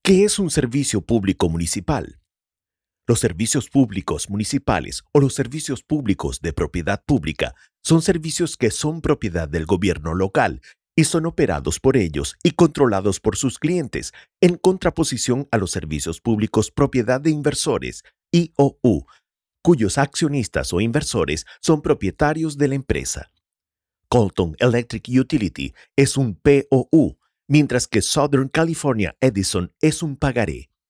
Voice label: Online Interactive Curriculum SP